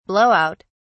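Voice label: "blow out", British English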